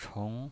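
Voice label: "chong", Mandarin Chinese pinyin voice, second tone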